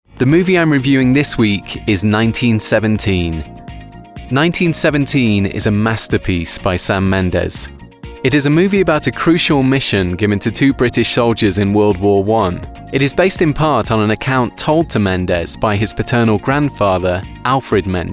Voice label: Presenter

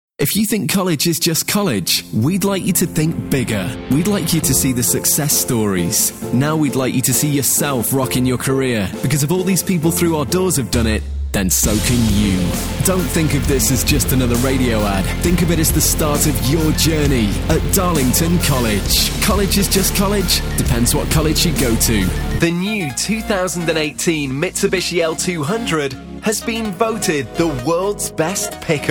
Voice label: voiceover cool promos showreel

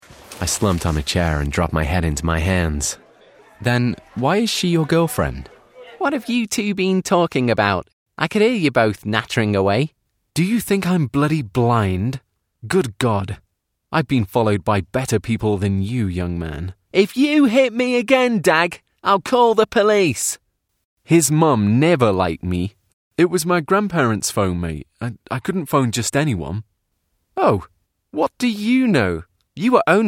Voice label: characters showreel

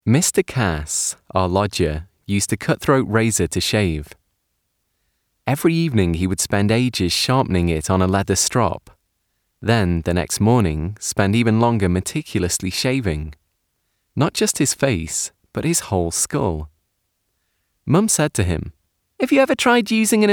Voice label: Audiobook Narration; audiobook narrator

